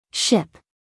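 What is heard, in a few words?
"ship", American English, female; everyone-can-use-english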